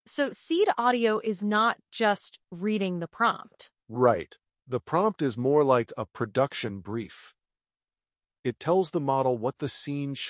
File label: multi character dialogue